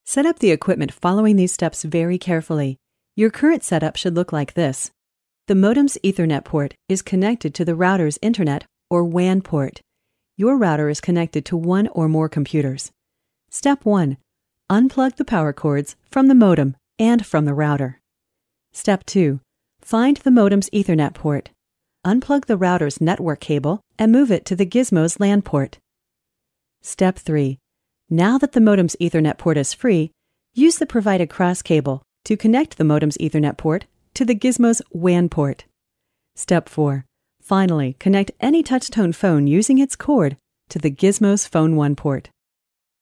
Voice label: Online Corporate Training; Flash; PBsVoxFlavors